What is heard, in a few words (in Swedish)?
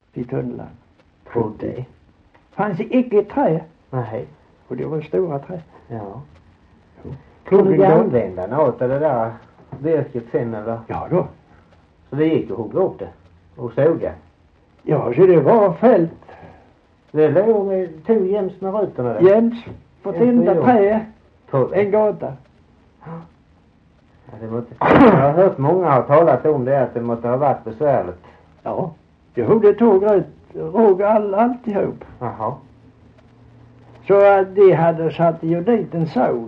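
Holm Halland, dialekter; Folkminnen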